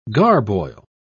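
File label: "garboil", British English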